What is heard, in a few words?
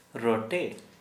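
Santali male frog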